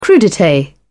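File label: "crudites", British English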